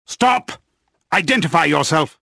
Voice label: suspicious; XBOX